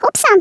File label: "oops", Swedish